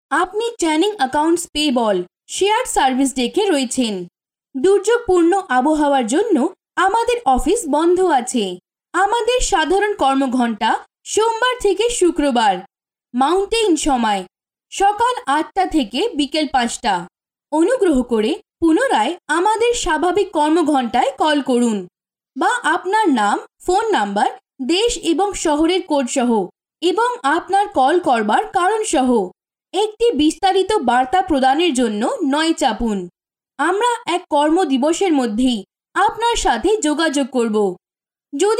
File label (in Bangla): Bengali; Bengali voice talents